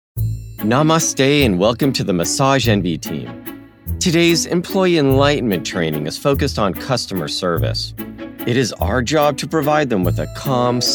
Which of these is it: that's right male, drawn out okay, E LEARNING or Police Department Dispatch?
E LEARNING